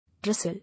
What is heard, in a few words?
"Wrestle", English